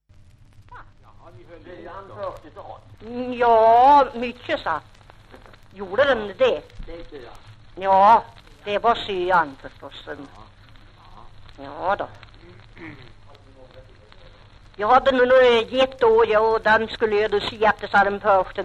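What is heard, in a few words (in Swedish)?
Järvsö Hälsingland, dialekter; Folkminnen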